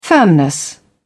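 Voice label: "firmness", British English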